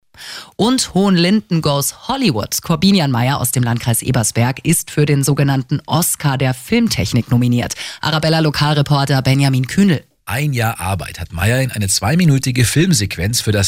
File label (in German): RadioArabella Nachrichten